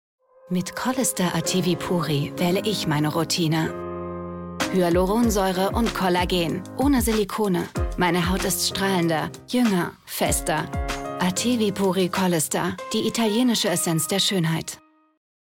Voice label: TV Spot; Collistar